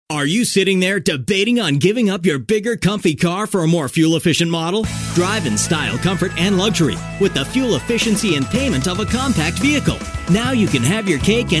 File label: RADIO Hyundai Only 6 Left